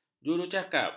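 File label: "jurucakap", Malay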